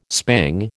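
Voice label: "spang", American English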